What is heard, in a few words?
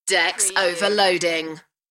UK female; Decks overloading